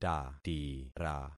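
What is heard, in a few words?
"tatira", concatenated syllables; Affixing experiment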